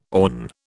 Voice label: "awn", British

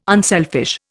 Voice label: "unselfish", Indian

